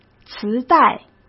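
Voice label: "磁带", Mandarin Chinese; chinese-flashcards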